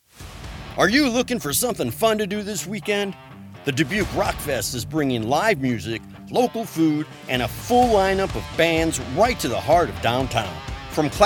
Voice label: Promo; rockfest; promo voiceover